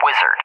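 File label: "Wizard", English, USA; CallSign; DCS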